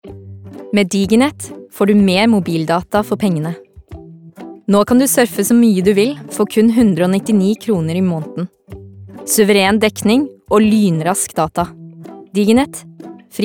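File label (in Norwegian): reklame, Norwegian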